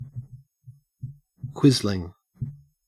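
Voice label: "quisling", Australian English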